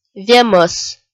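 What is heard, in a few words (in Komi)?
"вемӧс", Komi, female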